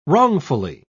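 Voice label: "wrongfully", English, British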